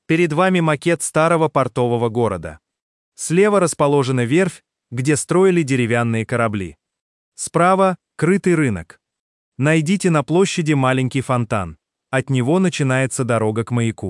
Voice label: voice museum ru; audiogid